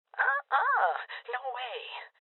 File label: Voices Refuse Female Old; rebel cops 2019